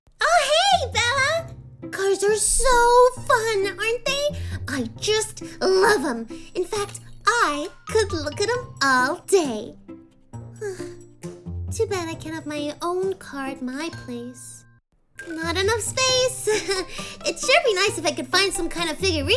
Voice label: English South African, Female